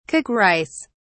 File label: cook rice, en-US